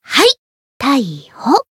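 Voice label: finish, Japanese; channon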